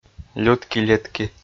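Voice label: "лютки-летки" Komi, male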